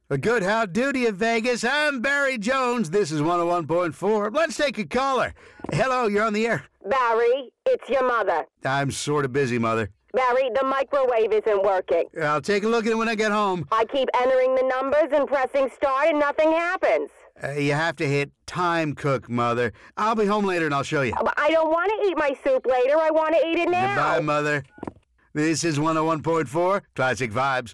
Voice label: Commentary; Classic Vibes 101.4; Gangstar Vegas; Android